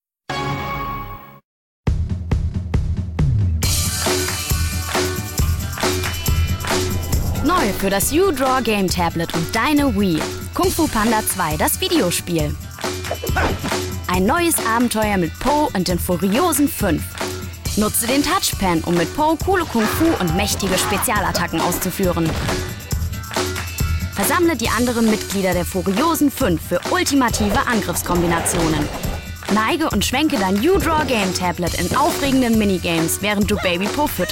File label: TV Spot; uDraw; KungFuPanda2